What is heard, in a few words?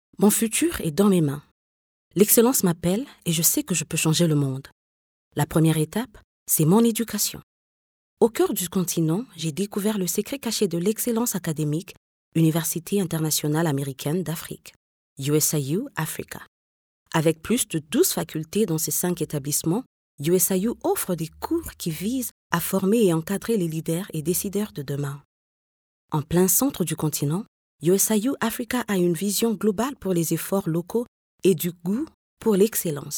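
African French female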